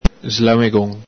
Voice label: "slamegon", Veneto

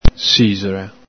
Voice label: "sixola", Veneto

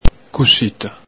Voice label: "cusita", Veneto

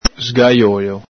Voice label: "sgaiolo", Veneto

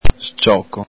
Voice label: "scioco", Veneto; snack, cluck; schiocco; el Galepin

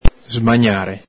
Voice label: "smagnare", Veneto

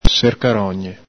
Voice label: "sercarogne", Veneto

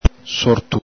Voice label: "sortu", Veneto

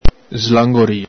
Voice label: "slangorio", Veneto

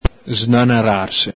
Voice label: "snanararse", Veneto

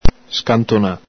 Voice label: "scantona", Veneto; smoothed; smussato; el Galepin; smoothed, rounded off

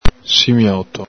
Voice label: "simioto", Veneto

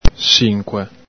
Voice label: "sincoe", Veneto

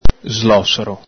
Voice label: "slosaro", Veneto

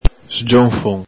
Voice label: "sgionfhon", Veneto

